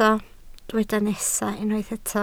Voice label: "nesaf", Welsh